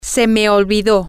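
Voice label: I forgot, spanish, female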